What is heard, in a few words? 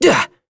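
Vox Landing, voices heroes English; kingsraid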